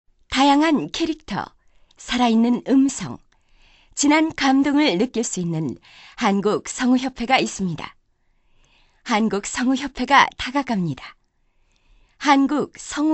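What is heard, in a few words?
Korean female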